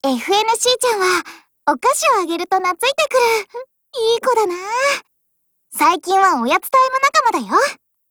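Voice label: DIALOGUE, Japanese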